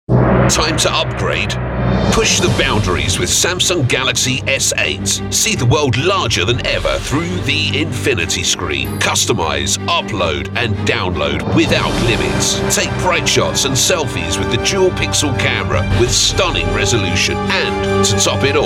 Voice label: COMMERCIAL VO